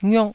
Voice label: "ngiuk4", taiwanese syllables

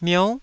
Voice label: "miou9", Taiwanese

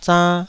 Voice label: "zann2", taiwanese syllables

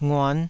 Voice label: "nguan5", Taiwanese syllable

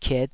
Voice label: "kiet5", taiwanese syllables

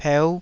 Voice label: "peu5", Taiwanese

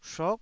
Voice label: "shuk9", taiwanese Syllables

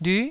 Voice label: "lyu9", Taiwanese syllable

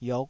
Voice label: "iok5", taiwanese syllables

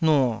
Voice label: "nuo3", taiwanese

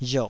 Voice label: "riok3", taiwanese syllable

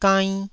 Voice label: "gainn1", Taiwanese

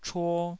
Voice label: "chuo2", taiwanese

